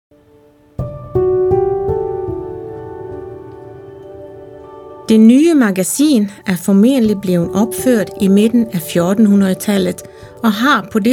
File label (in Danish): Dansk; Spot 7 De nye magasin; storygo